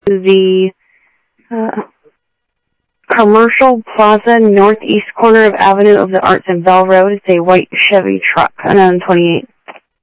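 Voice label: Sheriff West County, scanner audio; MCSO W TAC; ThompsonPeakScot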